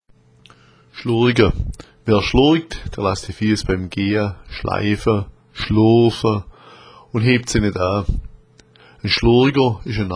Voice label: schlurga, schwaebisch

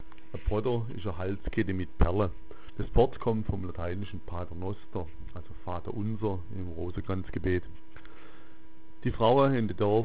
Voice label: "potter", schwaebisch

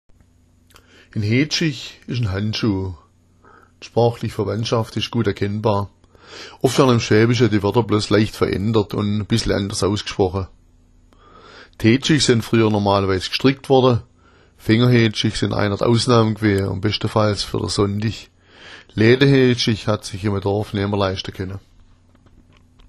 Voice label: hedschich, schwaebisch

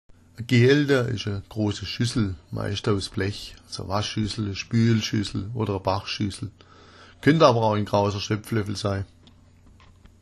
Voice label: gealda, schwaebisch

